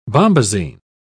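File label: "bombasine", British English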